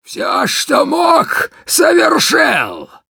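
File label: Demoman jeers, Russian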